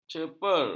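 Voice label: "ceper", Malay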